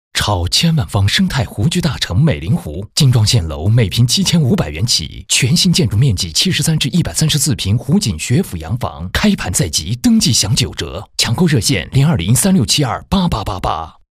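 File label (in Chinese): 地产浑厚; 美林湖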